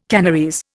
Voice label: "Kanarese", Indian